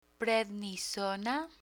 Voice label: "prednisona", medical spanish; medical spanish asthma